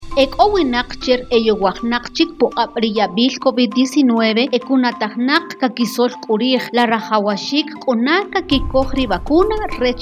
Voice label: SPOT KICHE